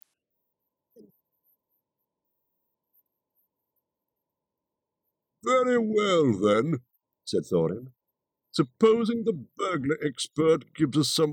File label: Near End Echo Removed